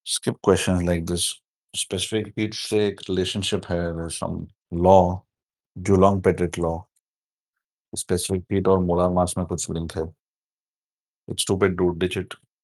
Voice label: voice message; moles